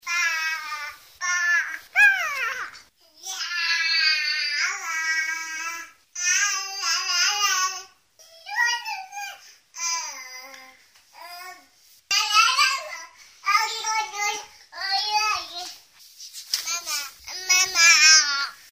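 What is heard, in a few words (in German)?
12 Monate, Tonbeispiel, Sprache, sagt Doppelsilben und evtl. seine ersten Worte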